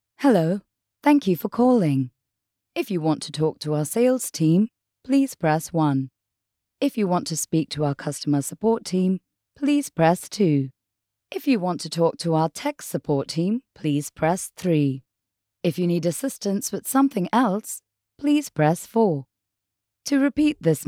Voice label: IVR sample